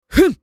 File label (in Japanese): 攻撃（強）3, クール系ボイス; 戦闘ボイス